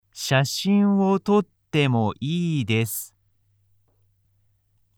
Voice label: Beginner shashin o totte mo ii desu slow speed, japanese